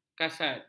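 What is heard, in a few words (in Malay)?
"kasad", Malay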